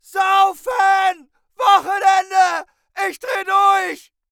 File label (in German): Charakter Schreihals